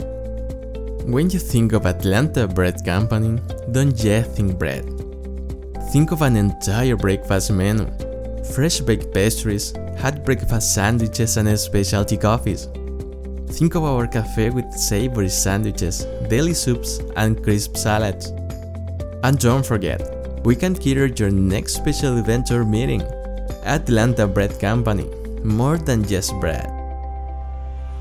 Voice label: male spanish latam english with latam accent commercial